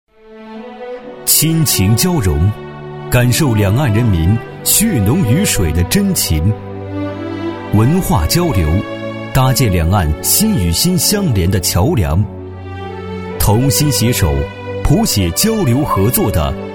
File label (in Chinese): 男 大气抒情; 两岸一家亲; 大气宣传片配音